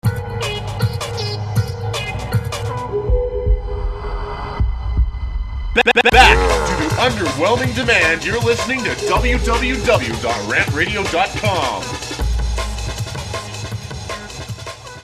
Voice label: Promo; Under Whelming Demand; RantRadio; Station Promos-In House